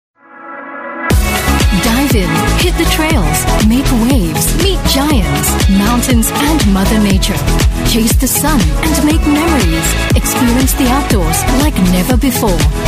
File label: Asian English Commercial Thailand Adventure; Unbox